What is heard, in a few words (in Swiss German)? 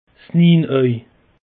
"nienauj", alsace; peche